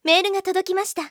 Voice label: mail, Japan Anime Girls; Windows; Bing; Nanae

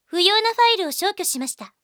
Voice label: trash, Japan Anime Girls; Windows; Bing; Nanami

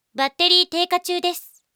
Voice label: battery low, Japan Anime Girls; Windows; Bing; Nanami

